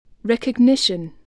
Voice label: "recognition", voice, english